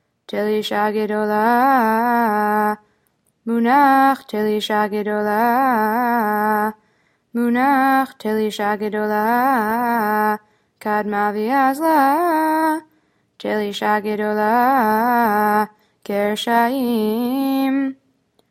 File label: polish lithuanian torah תלישא גדולה